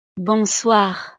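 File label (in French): "bonsoir", French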